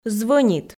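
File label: "звӧнит", Komi, female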